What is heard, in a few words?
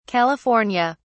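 "california", British English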